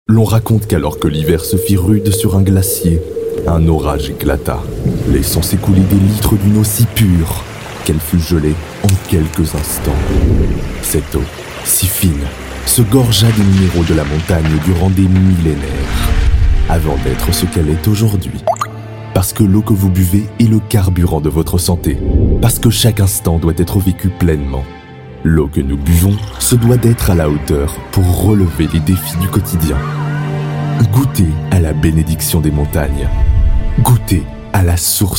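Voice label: French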